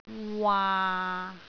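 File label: "waa6", Cantonese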